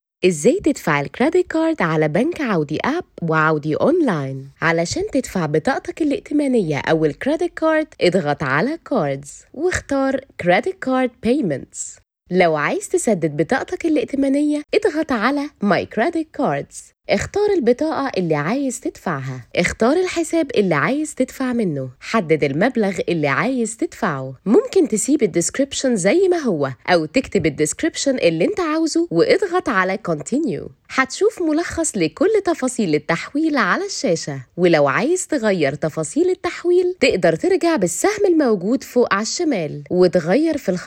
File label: bank vo; audi